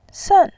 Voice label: "sen4", voice